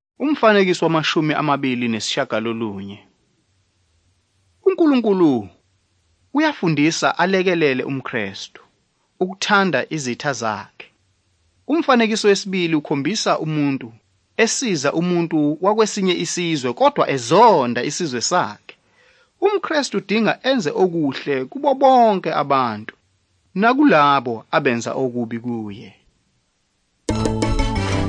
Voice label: zulu bible; good news